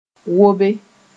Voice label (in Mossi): "wobe", moore